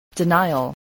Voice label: "denial", English